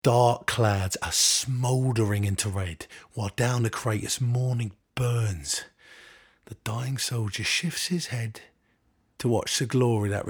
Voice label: character